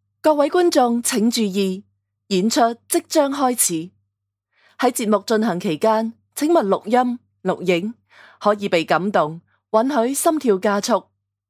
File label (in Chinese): 粤 主持类